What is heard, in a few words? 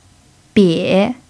"bie3", Mandarin pinyin